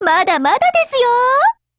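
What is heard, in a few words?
Japanese; SAT8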